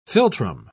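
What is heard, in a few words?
"philtrum", British English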